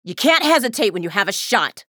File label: chatter neutral; athena